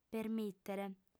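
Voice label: "permìtere", female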